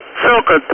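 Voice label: Speech, Russian, air traffic control; Callsign; DCS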